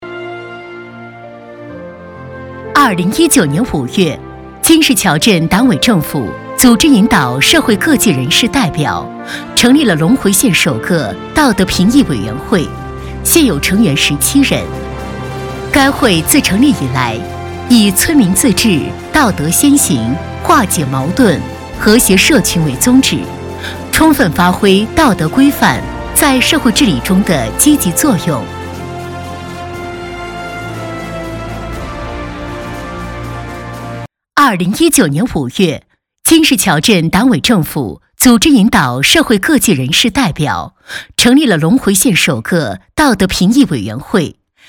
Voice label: 女 沉稳稳重; 金石桥镇

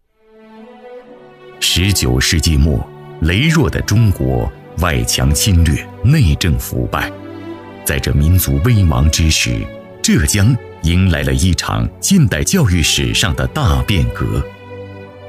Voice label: 男 历史题材解说

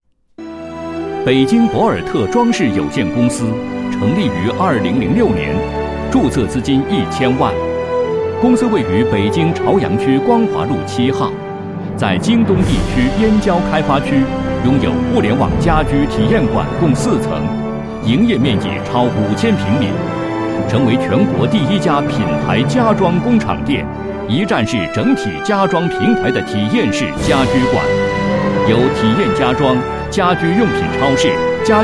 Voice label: 男21-企业, 宣传片; 装饰公司宣传片; 博尔特装饰公司宣传片

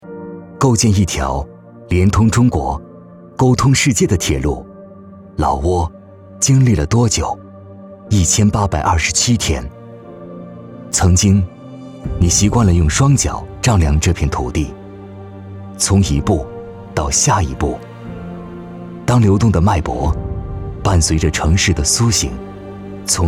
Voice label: 男 政府 弱到强 走心感; 中老友谊

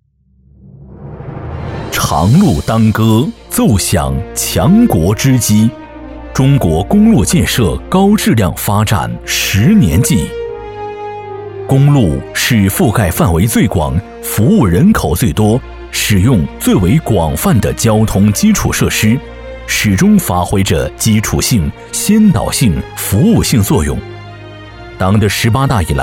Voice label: 男 专题汇报片 公路交通

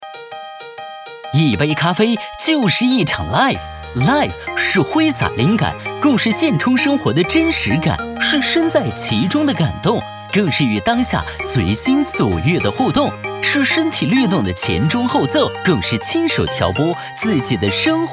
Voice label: 男 广告 快闪动画 年轻时尚; KCOFEE

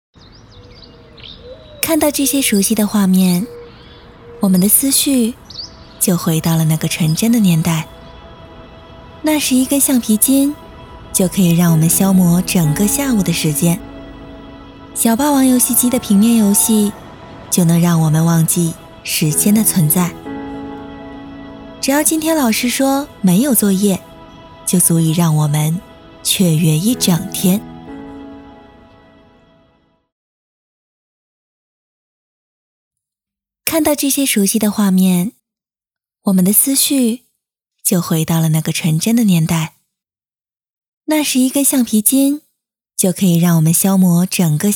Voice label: 女 旁白 温情

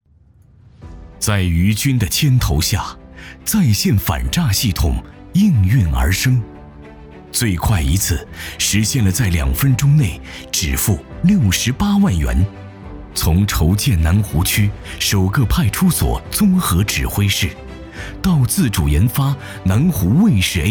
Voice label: 男 宣传片 在线反诈

